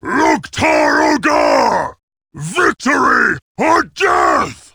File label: battlecry; garrosh